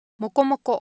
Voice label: Japanese, Ideo Phones; Shape